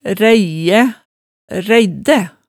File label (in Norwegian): "ræiæ", Hemsedal